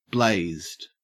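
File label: "blazed", English, Australian accent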